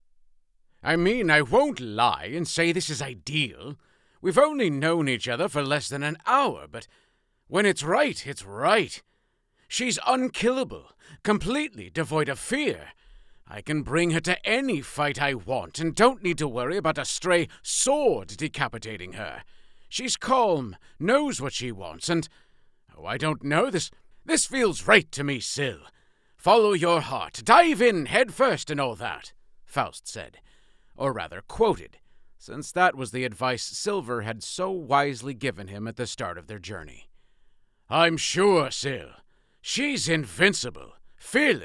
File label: Male, British, LitRPG, 3rd Person